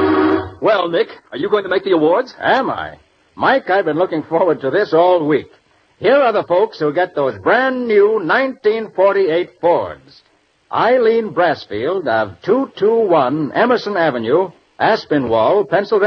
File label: Dutch Cleanser, Old Radio Ads; Winners of the 1948 Fords announced.